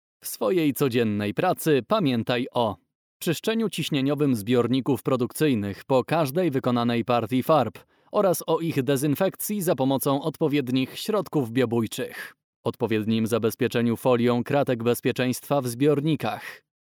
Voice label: male polish voice over talent narrative